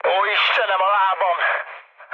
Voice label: damaged pilot, mood low, speech hun; War Thunder